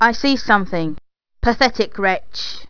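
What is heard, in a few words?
female human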